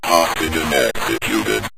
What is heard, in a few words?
hostage executed, synth voice; Kenney's Sound Pack